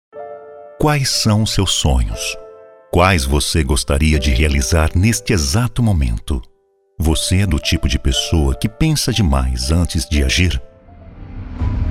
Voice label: portuguese brazilian others

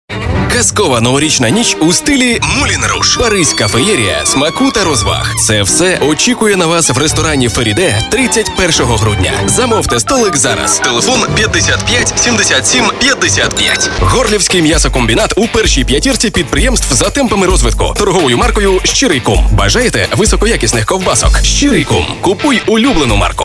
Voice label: ukrainian commercial

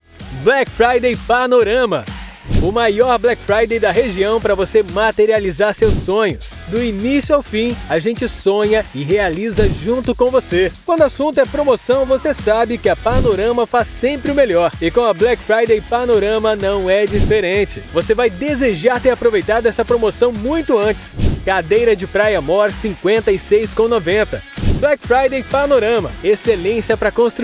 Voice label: portuguese brazilian promos

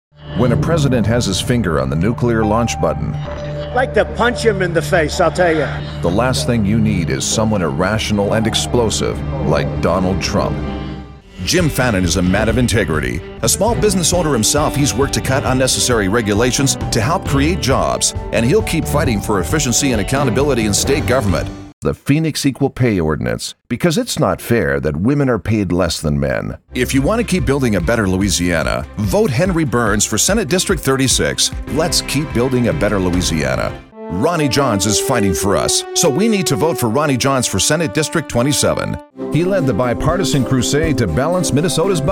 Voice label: english canadian political ads

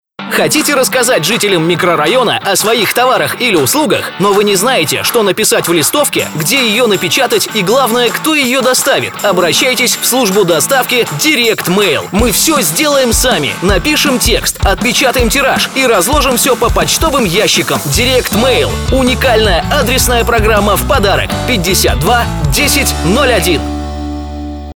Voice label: russian automotive

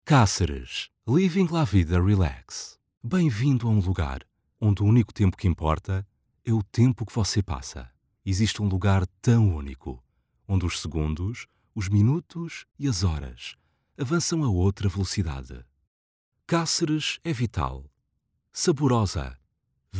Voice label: portuguese promos

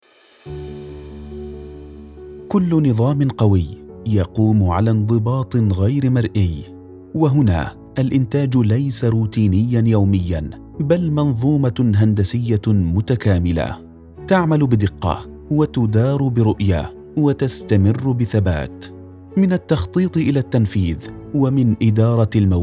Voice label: arabic radio imaging

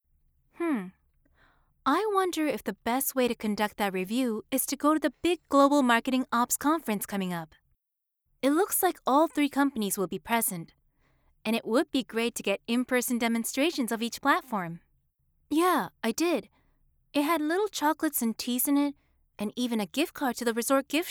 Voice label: english explainer videos

